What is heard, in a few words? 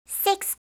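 "6", English; eng suuji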